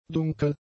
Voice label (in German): "dunkel", German; adjectives